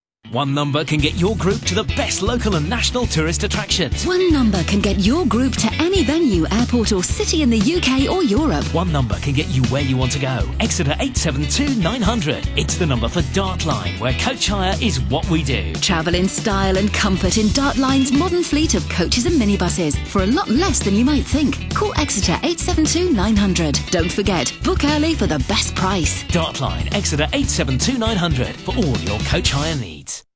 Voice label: Radio Advert; Dartline Coaches